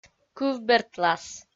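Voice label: "кывбертлас", Komi, female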